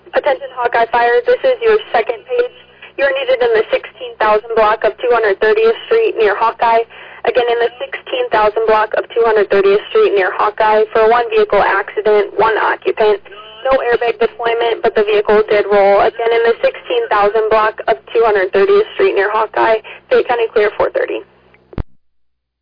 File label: Fire, dispatch; Hawkeye